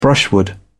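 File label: "brushwood", English, British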